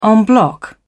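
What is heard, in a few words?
"en bloc", British English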